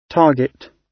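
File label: "target", British English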